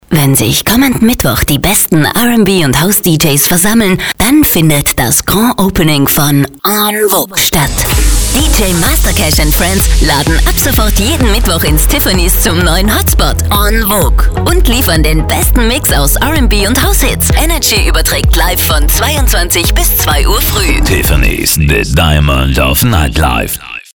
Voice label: spot; tiffanys

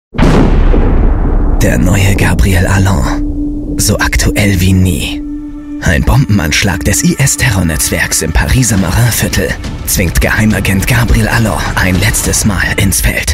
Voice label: Buchtrailer

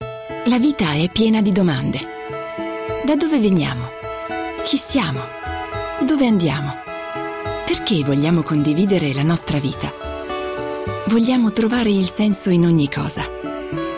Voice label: imagefilm

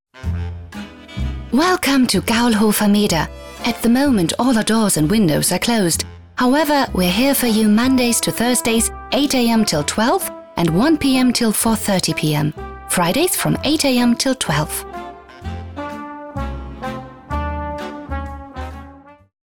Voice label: tel schleife englisch

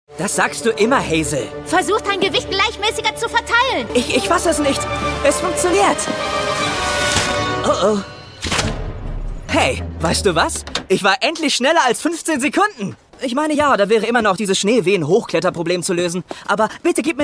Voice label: synchron; elliot

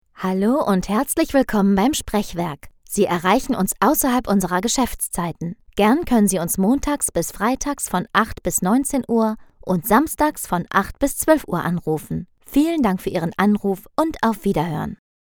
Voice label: telefon bandansage

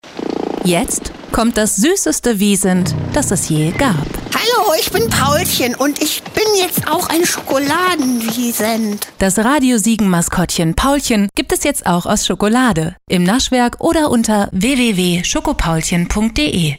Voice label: werbetrenner; schokopaulchen

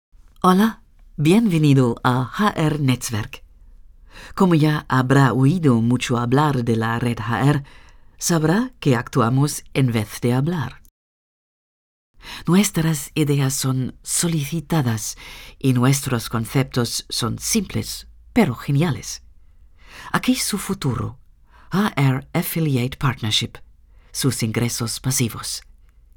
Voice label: spanisch; hr netzwerk

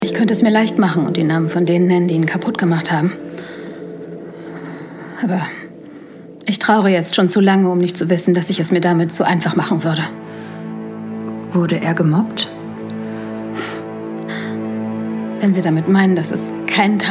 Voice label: horspiel

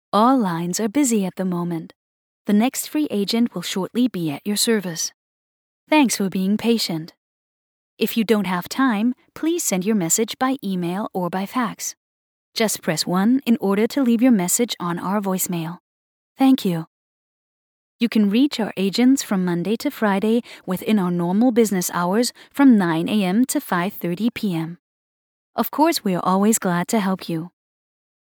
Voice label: telefonansage english tief

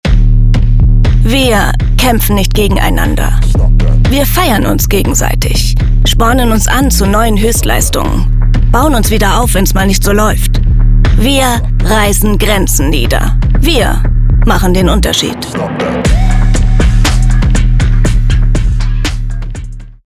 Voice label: sprecherdemos fussball trailer

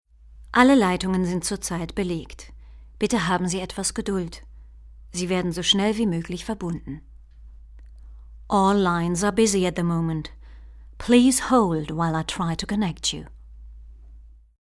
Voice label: telefonansage dt. engl

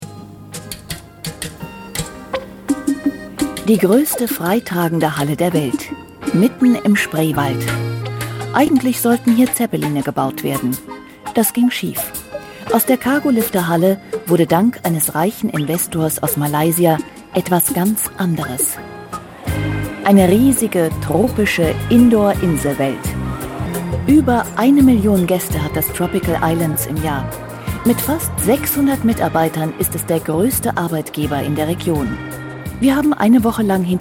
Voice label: reportage; rbb; tropical island

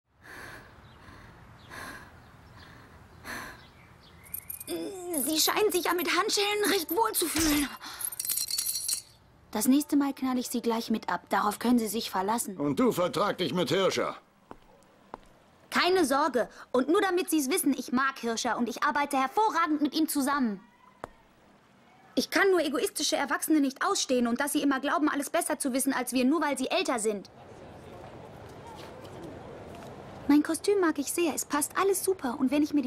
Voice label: deutsch; gunslinger girl

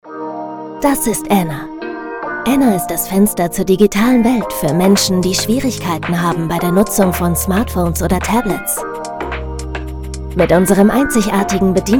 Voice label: imagefilm; enna

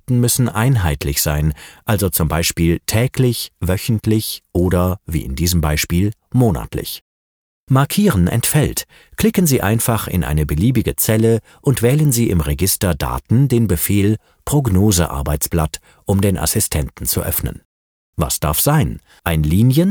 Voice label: elearn excel locker

